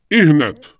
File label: Night Elf Male Official NPC Greeting; wowpreklad; czwow